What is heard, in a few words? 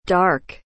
"dark", English